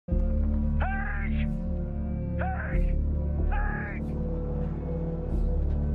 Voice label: screaming